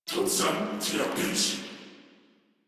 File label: Patrol, Battle Barks